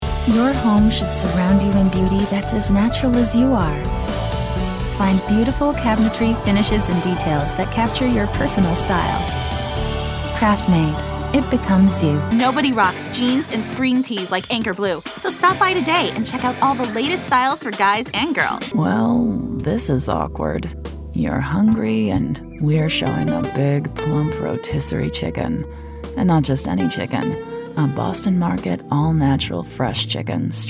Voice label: Commercial